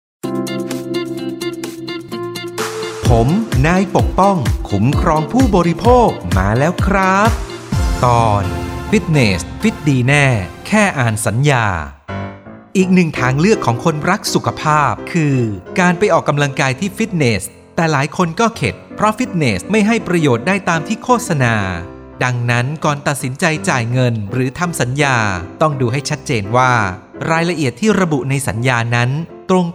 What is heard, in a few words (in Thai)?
สปอตวิทยุ ภาคกลาง; สคบ.